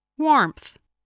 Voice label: "warmth", spelling